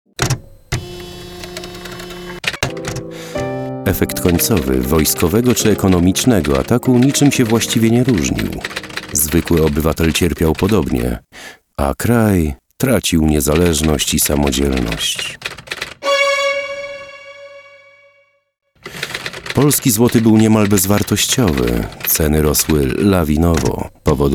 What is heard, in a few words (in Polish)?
audiobook lektor